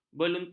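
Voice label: "beluntuk", Malay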